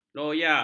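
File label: "loya", Malay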